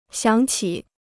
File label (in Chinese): "想起", Chinese